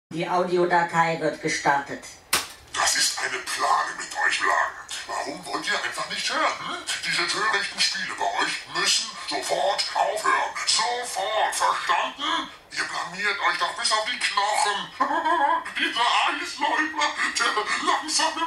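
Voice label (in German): Kinder Hörspiel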